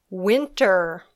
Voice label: "winter", English

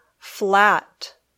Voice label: "flat", English

